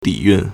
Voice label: "di3 yun4", Chinese